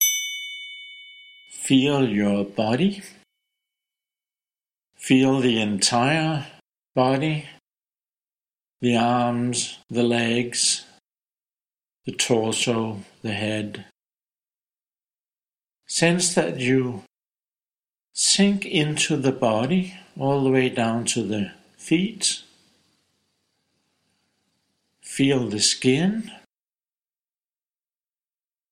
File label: ENGLISH KUNDALINI KRIYA